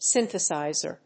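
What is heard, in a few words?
"synthesizer", English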